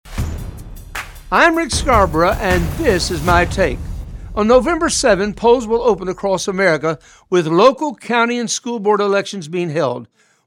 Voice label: Radio Spot